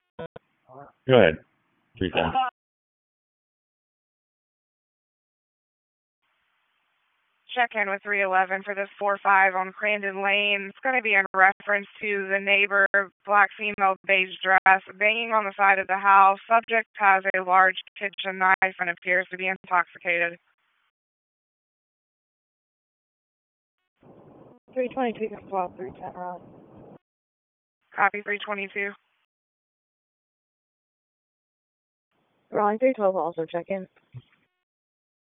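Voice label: Police District Dispatch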